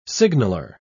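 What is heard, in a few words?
"signaller", English, British English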